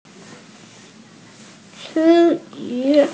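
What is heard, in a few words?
"word", Mandarin Chinese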